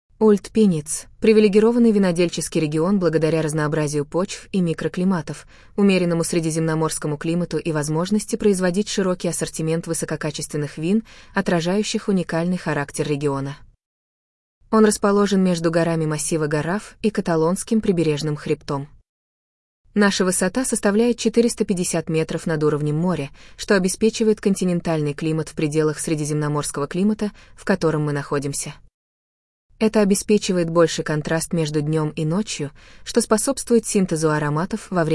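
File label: entorno Russian; entornoyvinedos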